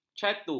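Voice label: "catu", Malay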